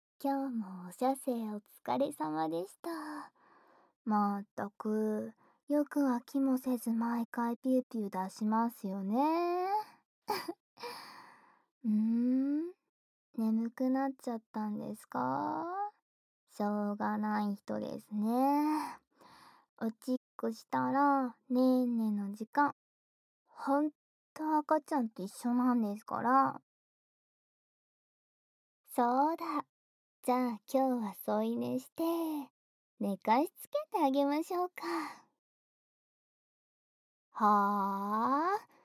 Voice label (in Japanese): こしょこしょ囁き添い寝